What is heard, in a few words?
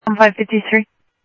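Sheriff Lake Patrol, scanner audio trunk; MCSO Lakes 1; ThompsonPeakScot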